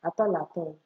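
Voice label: "at all at all", Nigerian Pidgin, female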